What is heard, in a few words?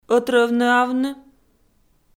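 "ӧтрӧвнӧавны" Komi, female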